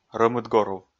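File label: "рӧмыдгорув", Komi, male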